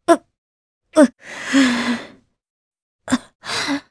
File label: Vox Sad, jp; voices; heroes; Priscilla; kingsraid